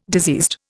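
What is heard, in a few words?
"diseased", Indian English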